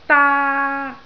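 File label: "daa1", Cantonese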